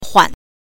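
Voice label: "huan", Mandarin Chinese pinyin, neutral tone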